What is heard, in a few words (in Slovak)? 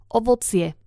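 "ovocie", Slovak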